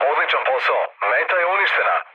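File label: Serbian bomb success mood low, speech; War Thunder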